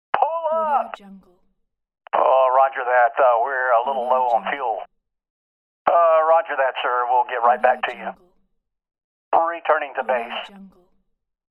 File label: military radio voices